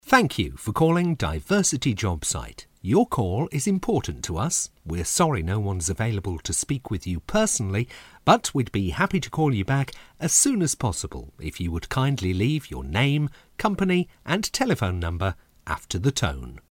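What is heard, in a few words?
english british male; dictor; dictor-sounds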